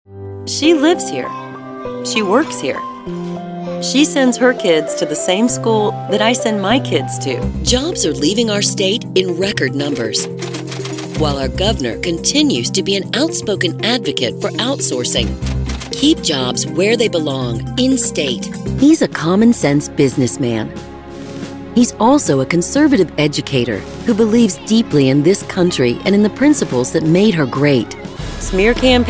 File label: Political voiceovers